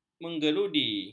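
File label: "menggerudi", Malay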